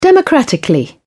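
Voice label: "democratically", British English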